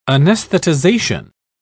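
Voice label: "anesthetization", British English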